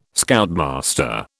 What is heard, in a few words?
"Scoutmaster", British